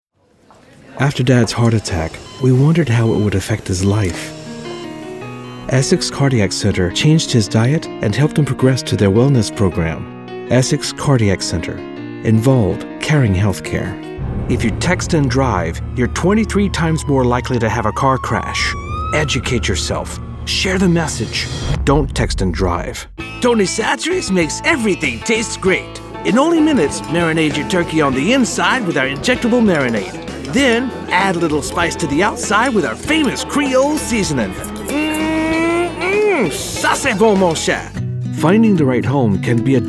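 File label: commercial, Male Voice Actor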